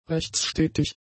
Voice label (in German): "rechtsstetig", German; adjectives